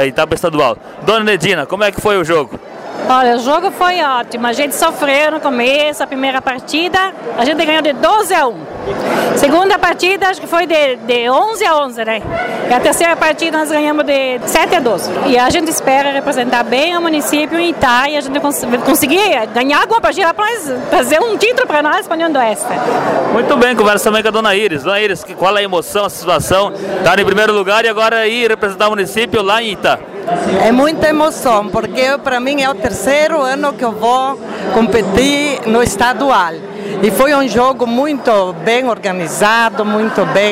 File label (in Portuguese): dupla truco feminino